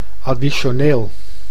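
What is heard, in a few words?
"additioneel", Dutch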